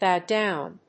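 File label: "Bow Down", English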